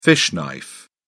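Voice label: "fish knife", British English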